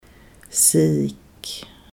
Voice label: "white fish" standard